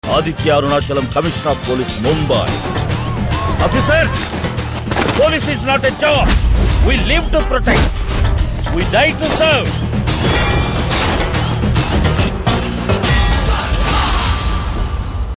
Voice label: Trailer Dialogue Ringtone; Darbar; Rajinikanth